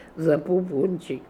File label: "Zapopoonchik", pronounciations; Fourth Way; Beelzebub